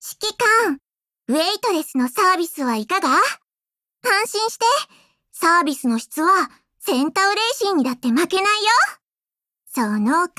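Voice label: daily Japanese; Ksenia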